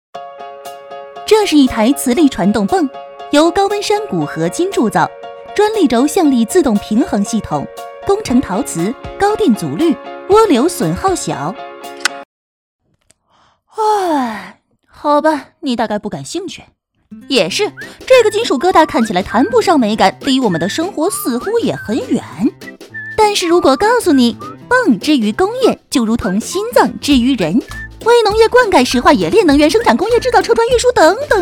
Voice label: MG动画配音

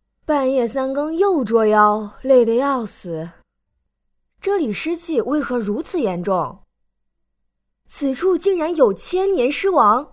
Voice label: 游戏独白配音

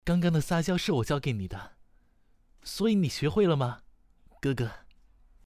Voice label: 温柔的青年

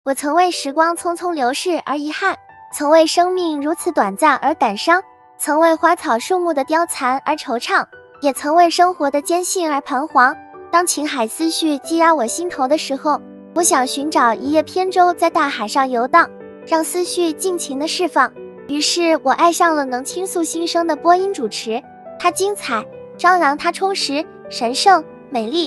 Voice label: 小萝莉

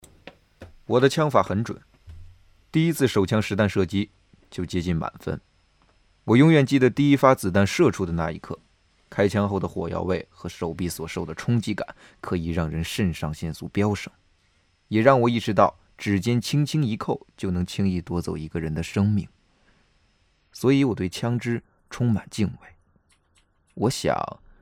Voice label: 男性狱警

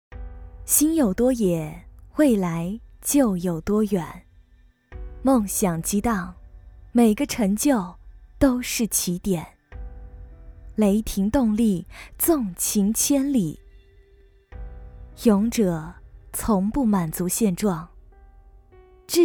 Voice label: 高品质广告

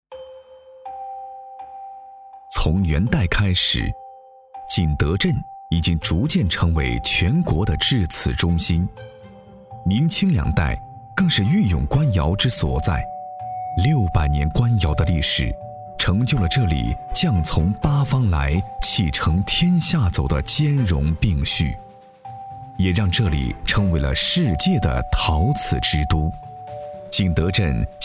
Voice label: 纪录片; 纪录片从元代开始

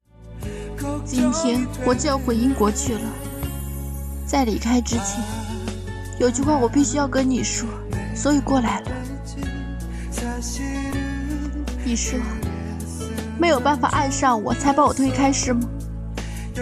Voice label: 韩剧; 我的女孩韩译

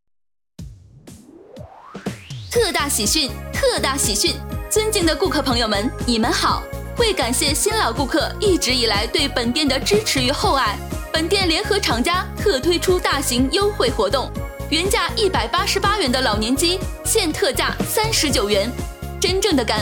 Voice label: 手机促销广告配音

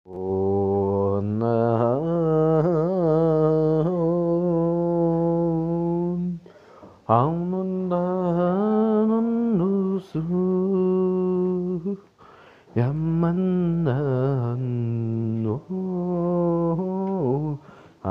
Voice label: 蒙语配音

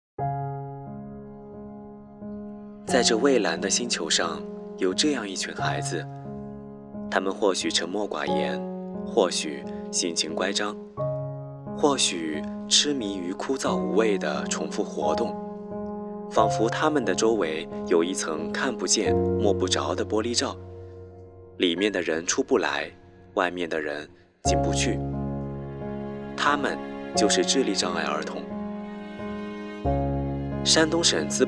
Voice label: 纪录片混音

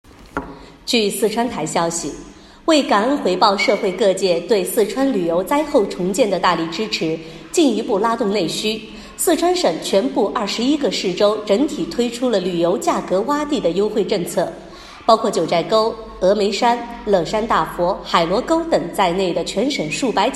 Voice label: 新闻

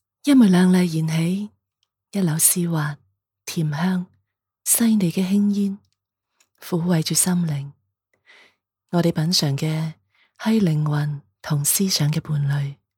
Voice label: 粤情感类

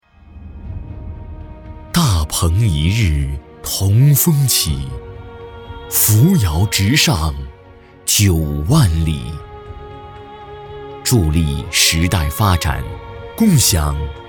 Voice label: 宣传片大气磅礴; 华彩城

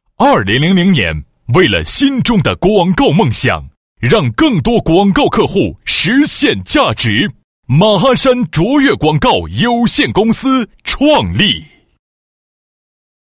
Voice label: 专题 大气; 马鞍山

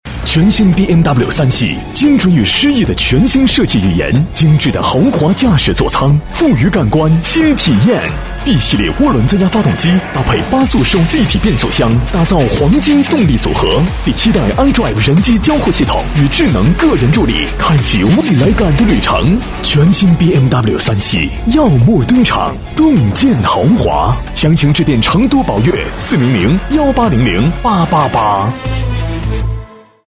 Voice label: 广告汽车

